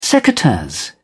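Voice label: "secateurs", British English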